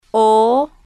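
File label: "o", spanish, female, slow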